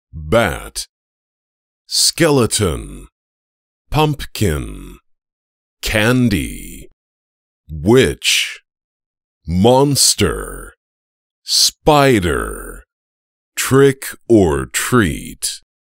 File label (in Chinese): 外籍英男 清晰自然 英语教学